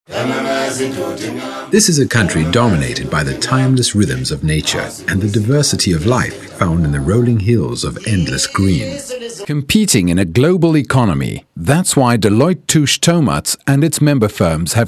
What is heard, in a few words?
English Neutral Corporate